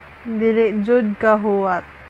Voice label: Cebuano